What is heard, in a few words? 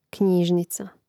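"knjižnica", Croatian; Mrežnik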